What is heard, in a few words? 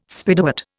"Spiderwort", Indian